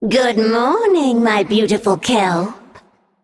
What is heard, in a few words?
Female Naga